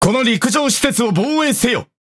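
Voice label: Speech Quick Commands Ally Building, Japanese; World Of Warships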